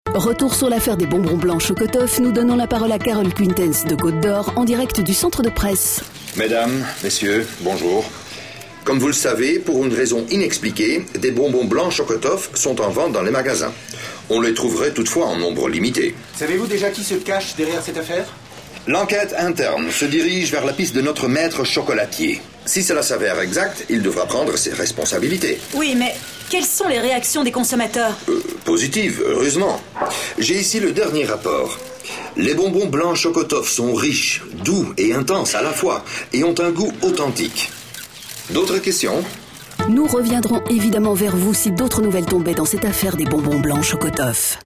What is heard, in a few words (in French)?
radio pub spot